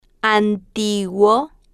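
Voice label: "antiguo", Spanish, slow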